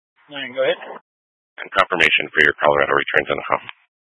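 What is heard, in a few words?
Troop 2A Dispatch, scanner audio recording; Troop 2A Dispatch (Chaffee, Custer, Fremont, Lake, Park)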